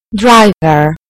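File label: "driver", British English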